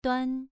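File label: "duan" Mandarin, second tone, pronunciation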